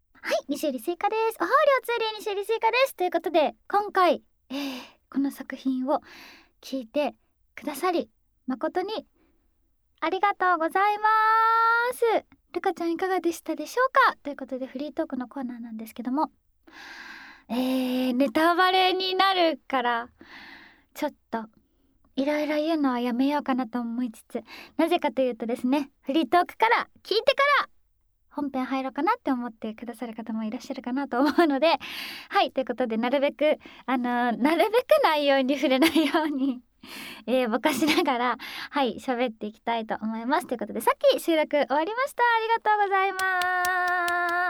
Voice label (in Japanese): フリートーク; ルカちゃん